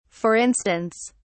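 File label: "for instance", en, uk British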